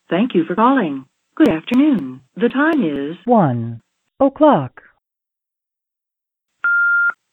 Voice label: audio USA